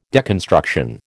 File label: "deconstruction", American